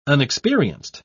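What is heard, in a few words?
"unexperienced", British English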